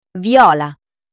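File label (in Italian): "viola" Italian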